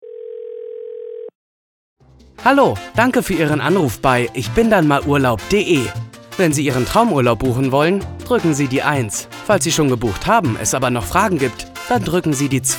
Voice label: telefonansagen mix

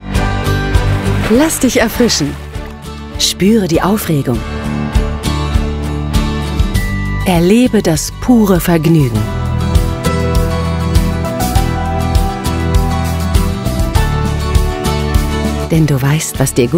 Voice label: imagefilm; Sprecher in Berlin; Muttersprache Deutsch; pfullingen

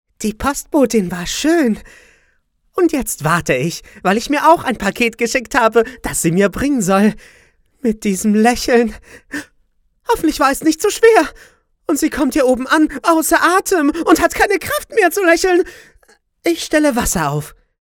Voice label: trickstimme; Muttersprache Deutsch

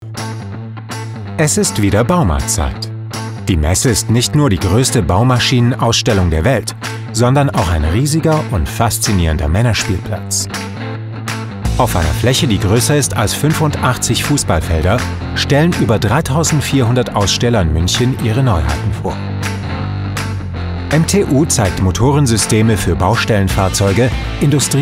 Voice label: Imagefilm deutsch; bauma

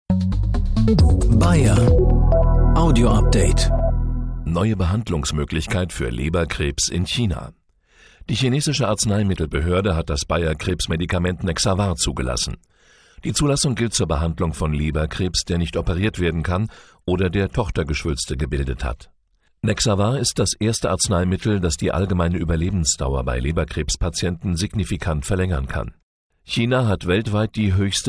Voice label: Podcast

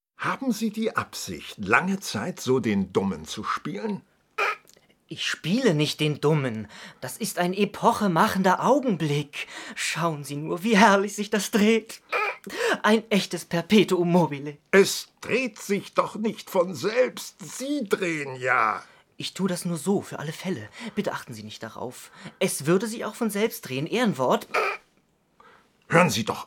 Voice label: hoerspiel

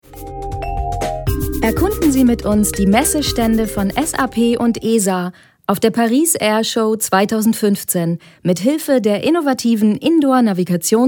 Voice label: audioguide; navis app; Muttersprache Deutsch

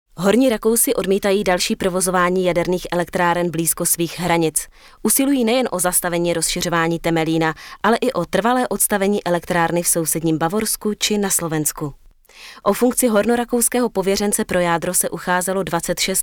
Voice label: Nachrichten

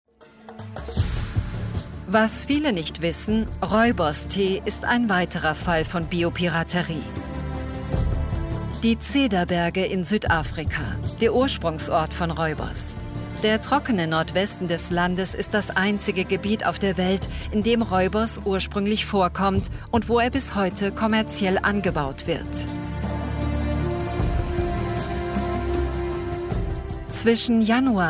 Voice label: offkommentar souveraen informativ; 3sat makro; biopiraterie

